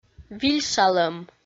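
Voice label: "вильшалӧм", Komi, female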